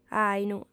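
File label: "àinu", Sardinian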